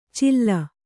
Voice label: "ಚಿಲ್ಲ", Kannada